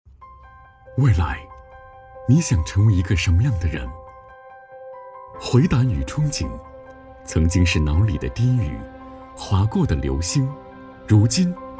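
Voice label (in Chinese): 招生 宣传片, 普通话, 男, 中年; 文山市一中招生宣传片样音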